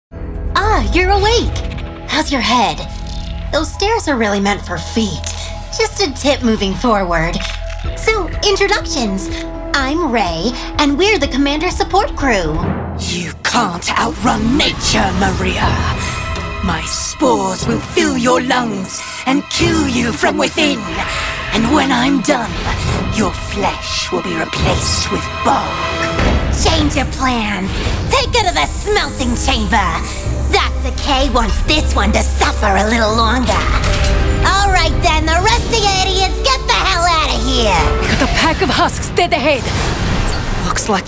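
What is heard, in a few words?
animation female video game, Voice Over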